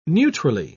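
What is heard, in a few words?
"neutrally", British English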